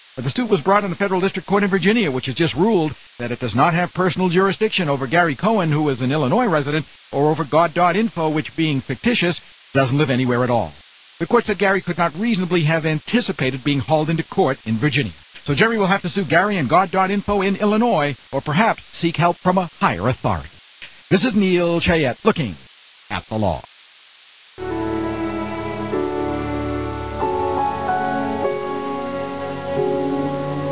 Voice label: tv news; de syringe